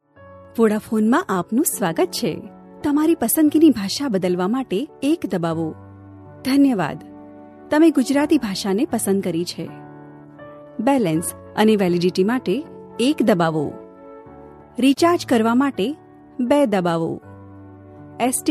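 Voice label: Gujarati, female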